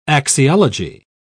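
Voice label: "axiology", British English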